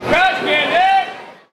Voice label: Baseball Crowd Vendor; SFX Kit; Crowds